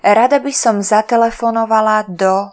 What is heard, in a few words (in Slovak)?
rada by som zatelefonovala do, Slovak telephone phrases